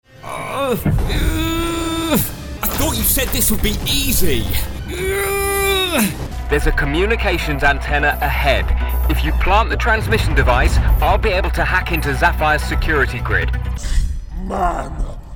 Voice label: english british video games